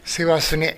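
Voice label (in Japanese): "sewasune", 南山形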